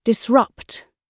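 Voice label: "disrupt", British English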